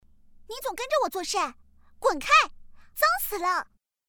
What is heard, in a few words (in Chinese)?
傲娇少女